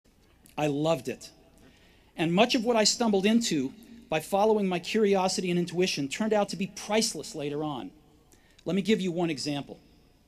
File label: Commencement Address; Steve Jobs; 2005; Stanford